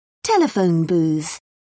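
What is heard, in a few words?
"telephone booth", British English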